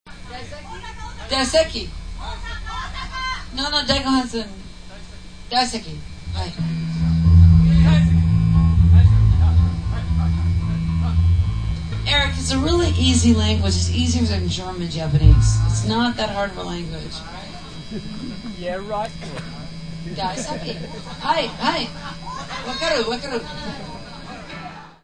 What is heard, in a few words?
Speaking Japanese